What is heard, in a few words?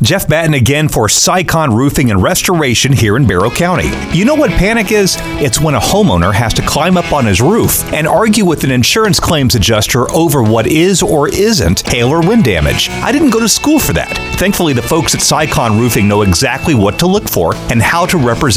radio Ad; SYCON